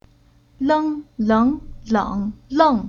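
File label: "Leng", Chinese syllable; Уроки фонетического блока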